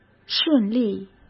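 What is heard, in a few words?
"顺利", Mandarin Chinese; chinese flashcards; hsk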